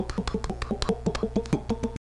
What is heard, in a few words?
labials plain, Romanian